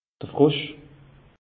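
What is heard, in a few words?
"frosch", Alsatian; meteo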